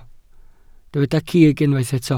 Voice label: "cig", welsh

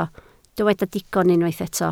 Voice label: "digon", Welsh

